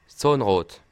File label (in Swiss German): "zahnrad", Alsace